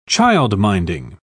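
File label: "childminding", British English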